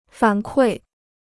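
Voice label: "反馈", Chinese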